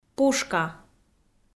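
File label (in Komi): "пушка", Komi, female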